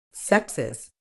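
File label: "sepsis", British English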